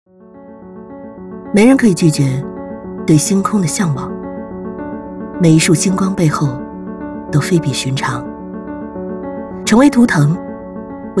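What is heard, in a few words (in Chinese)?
广告时尚态度; 广告奔驰时尚态度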